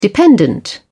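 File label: "dependent", British English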